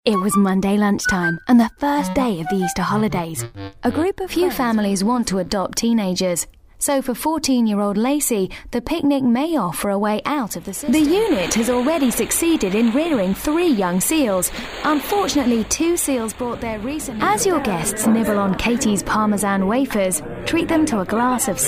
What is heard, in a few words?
narration